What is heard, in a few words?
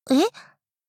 shock